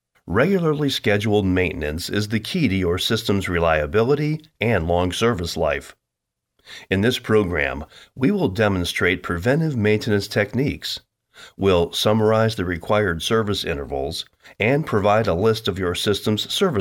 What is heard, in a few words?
narration sample